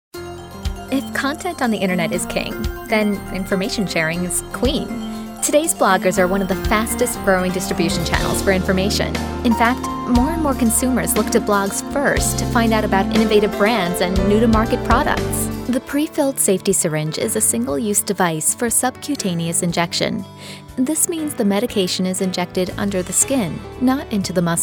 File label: women narration